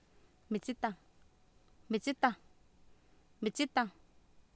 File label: "mitsitah", Oapan, female; Oapan minimal pairs 2000